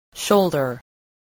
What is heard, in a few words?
"shoulder", spelling